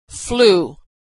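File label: "flue", American English; vowel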